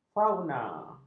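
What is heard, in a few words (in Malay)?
"fauna", Malay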